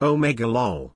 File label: "omegalul" pronunciation